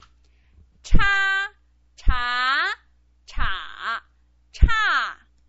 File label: "cha", Chinese pinyin